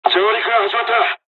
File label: dialog, dialogs japanese; War Thunder